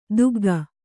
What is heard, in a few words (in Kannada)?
"ತೆಗ್ಗು", Kannada